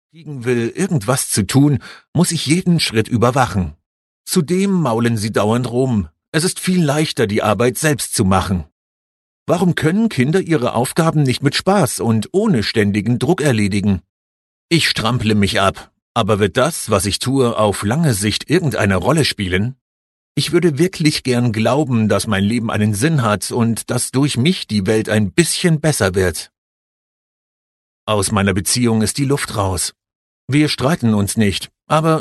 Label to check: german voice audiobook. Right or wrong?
Right